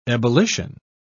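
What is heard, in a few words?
"ebullition", British English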